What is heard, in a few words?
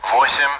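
Speech, Russian, AWACS; Digits; DCS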